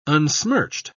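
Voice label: "unsmirched", British English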